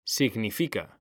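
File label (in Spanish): "significa", Spanish; mekanta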